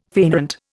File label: "fainÂant", Indian